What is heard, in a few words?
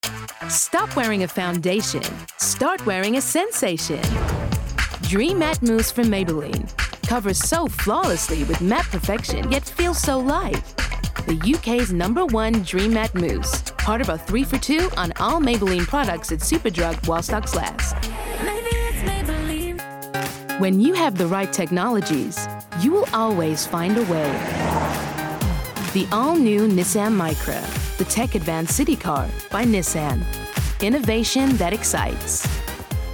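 Commercials US Montage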